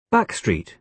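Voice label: "backstreet", British English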